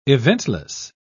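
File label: "eventless", British English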